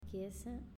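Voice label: "Chiesa", Italian, female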